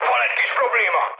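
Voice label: Hungarian aircraft damaged mood high, speech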